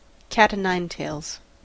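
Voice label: "cat-o'-nine-tails", American English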